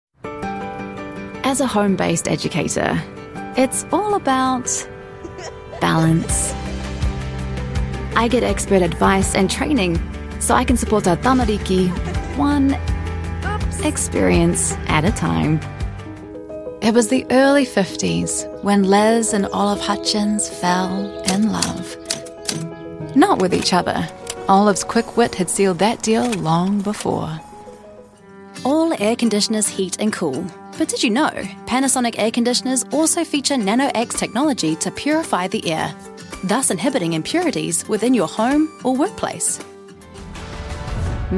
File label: English New Zealand explainer videos